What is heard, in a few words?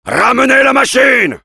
Heavy move up, fr